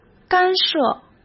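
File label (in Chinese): "干涉", Mandarin Chinese; hsk; chinese-flashcards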